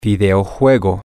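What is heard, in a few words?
video games, spanish, male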